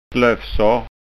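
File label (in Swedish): "slofsor", Scanian dialect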